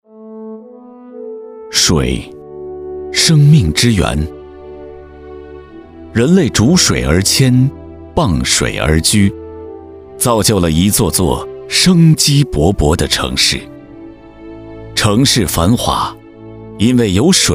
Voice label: 男国 专题 人物 人物专题

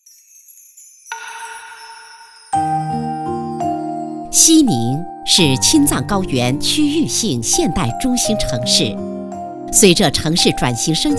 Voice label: 宣传片 旅游 沉稳; 西宁旅游

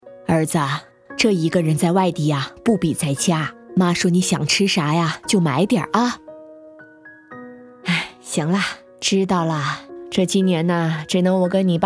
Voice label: 女 动画 角色 50岁妈妈

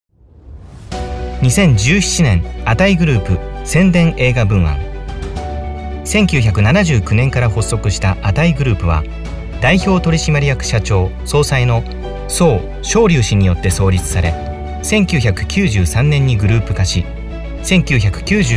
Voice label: 日语男 外语 企业宣传大气浑厚160每分 浑厚

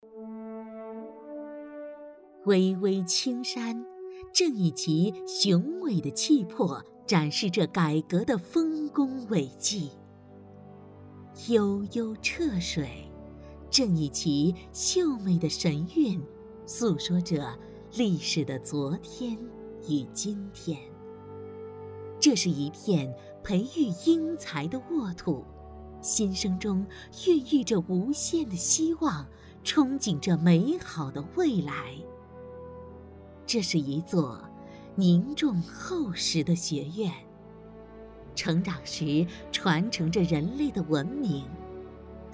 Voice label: 专题 学校 学校宣传

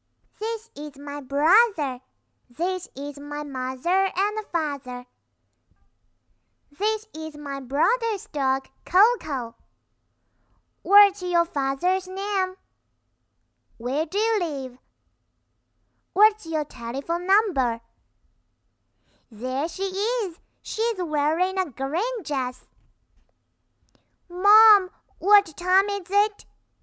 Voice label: 英文女童; 童声

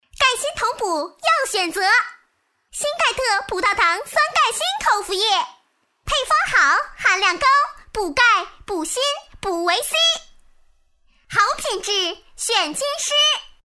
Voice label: 动画 童声 女童, 女国

